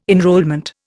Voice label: "enrolment", Indian